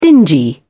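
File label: "dingy", British English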